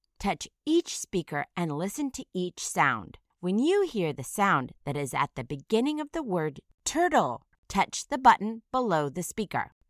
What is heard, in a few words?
"turtle", initial phoneme isolation